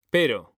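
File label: "pero", Spanish, male; mekanta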